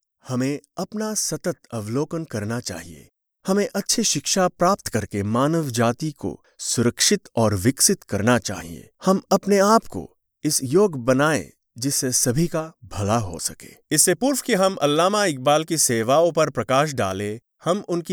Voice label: Hindi Narration